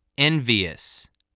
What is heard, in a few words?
"envious", voice, english